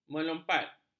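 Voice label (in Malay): "melompat", Malay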